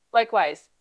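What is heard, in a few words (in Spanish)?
Voice Acting es F, Spanish, female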